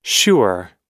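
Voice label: "sure", American English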